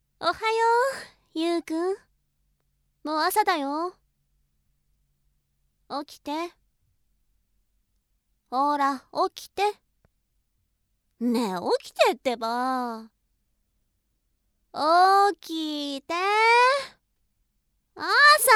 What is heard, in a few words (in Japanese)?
目覚ましボイス, ASMR